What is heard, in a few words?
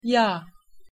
"yag", Turkish